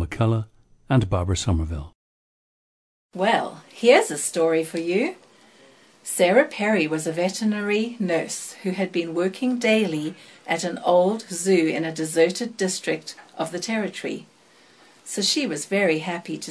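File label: south africa, dialects of English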